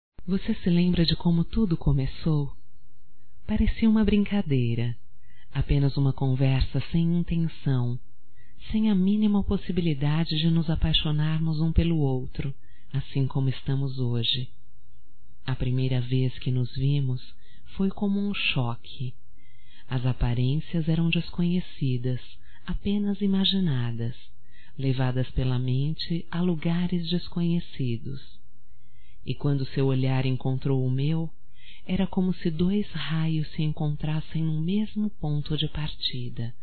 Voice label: NAMORO POR TELEFONE QUE DEU CERTO, Românticas fem

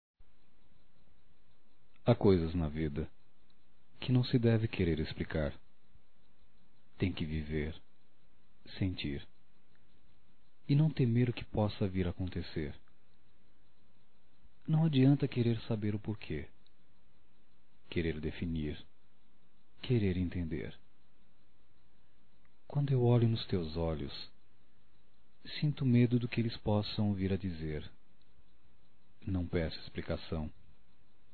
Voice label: Ao Grande Amor Masculino; Telemensagens 2017

